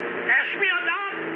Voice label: aircraft destroyed, mood low, speech ge; War Thunder